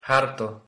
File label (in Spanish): "harto", h aspirada